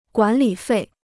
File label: "管理费", Chinese